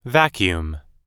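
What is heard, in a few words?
"vacuum", English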